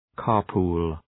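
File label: "car pool", English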